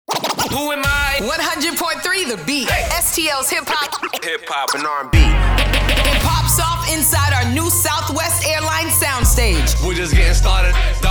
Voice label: Imaging VO

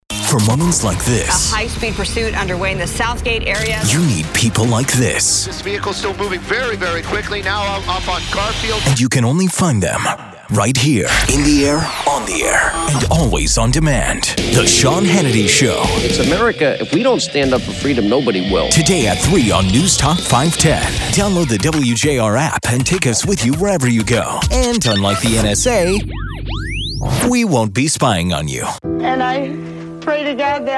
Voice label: NEWSTALK

